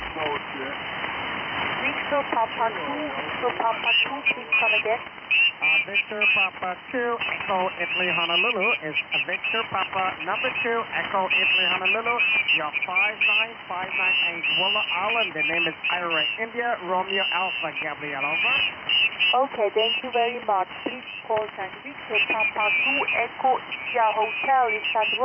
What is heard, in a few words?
14.245 MHz